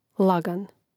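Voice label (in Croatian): "lagan", Croatian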